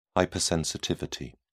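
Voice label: "hypersensitivity", British English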